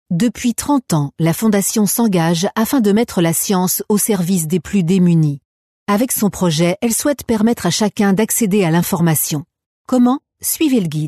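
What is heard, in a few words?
French female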